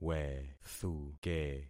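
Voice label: "wethuke", concatenated syllables; Affixing experiment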